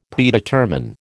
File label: "Predetermine", American